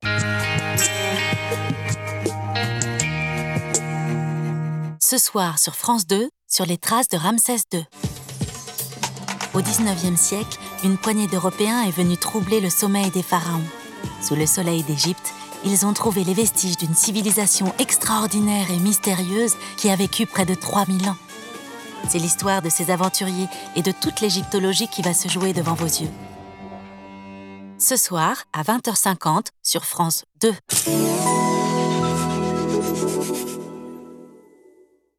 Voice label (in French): BANDE ANNONCE, voix-off; France 2